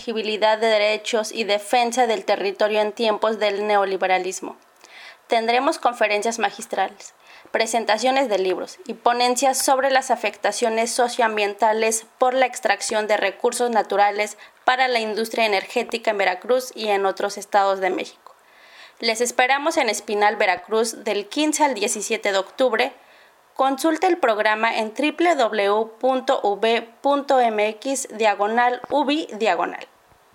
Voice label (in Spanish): Spot Seminario Democracia